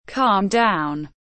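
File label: "calm down", English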